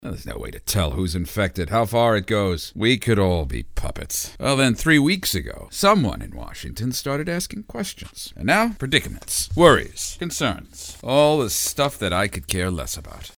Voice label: Doctor, Disenchanted; animation